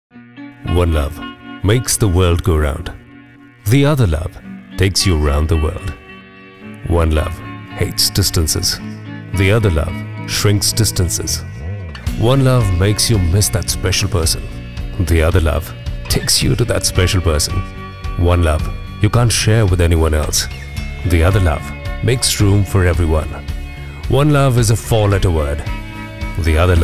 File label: Radio Spot Sample